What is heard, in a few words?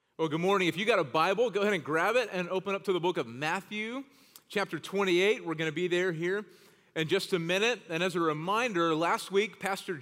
Sermon English